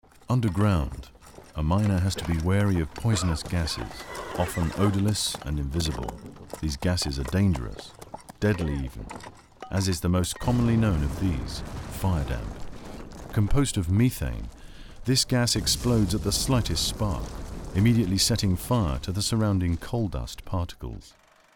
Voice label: Audioguide; Mons museum